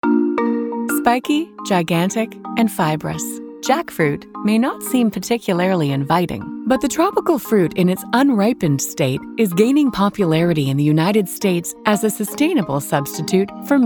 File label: narration; TaDa